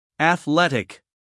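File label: "athletic", American English, male; 1000 hours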